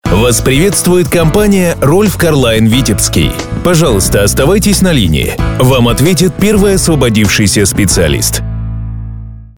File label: IVR